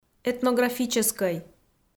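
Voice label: "этнографическӧй", Komi, female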